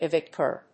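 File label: "evictor", English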